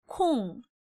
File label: "Kong", Korean